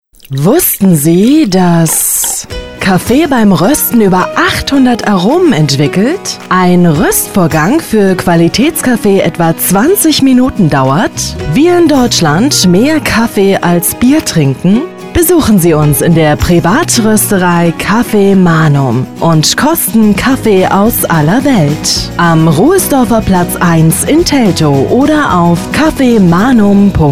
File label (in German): Radiospot; Kaffeemanum